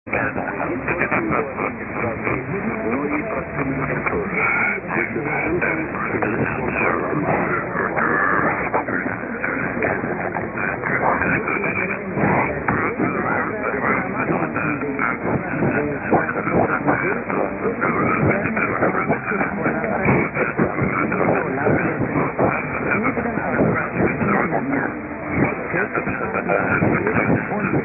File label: 864 Radio Liberty in Turkmen via Armenia